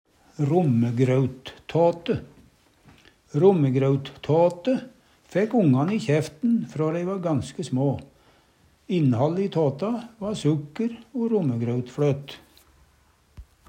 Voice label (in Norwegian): rommegrauttate, Numedalsmål